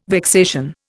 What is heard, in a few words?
"vexation", Indian